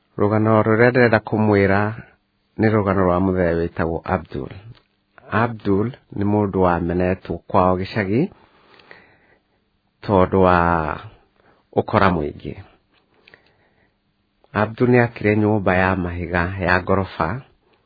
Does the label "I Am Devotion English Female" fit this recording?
No